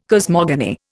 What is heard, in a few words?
"cosmogony", Indian